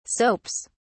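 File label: "soaps", British English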